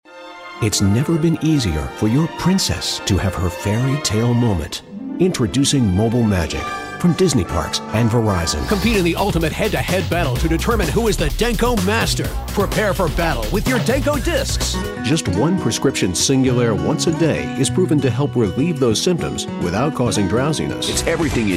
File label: Commercial